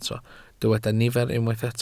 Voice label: "nifer", Welsh

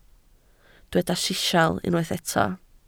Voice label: "sisial", Welsh

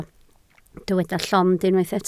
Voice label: "llond", Welsh

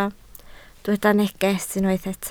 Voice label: "neges", Welsh

